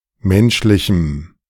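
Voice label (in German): "menschlichem", German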